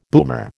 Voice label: "boomer", American